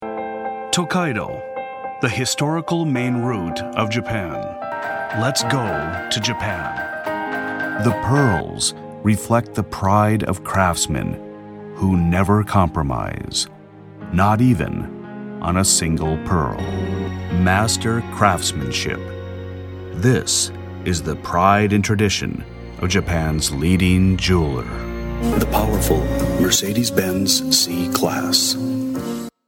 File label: soft high brand